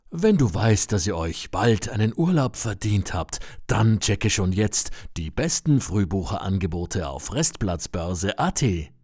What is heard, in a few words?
Commercial; Restplatzboerse